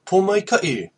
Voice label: good luck, hawaiian

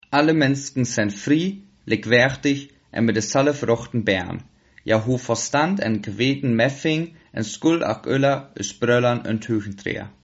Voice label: northfrisian sylt

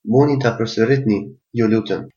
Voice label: say again, albanian gheg

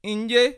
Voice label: "yes", bassa

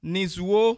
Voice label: please, bassa

